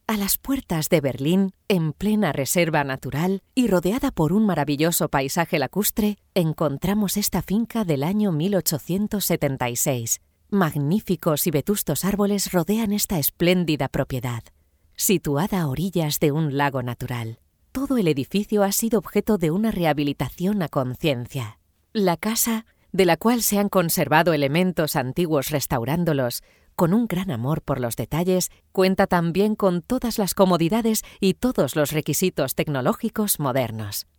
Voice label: docu